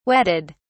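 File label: "wetted", British English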